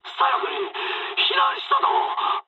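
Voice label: Japanese left wing mood med; War Thunder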